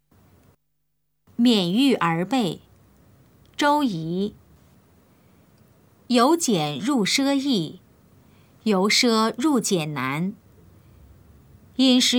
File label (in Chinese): putonghua; 勉諭兒輩; 普通話